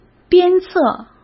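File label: "鞭策", Mandarin Chinese; chinese-flashcards; hsk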